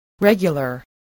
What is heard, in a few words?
"regular", spelling voice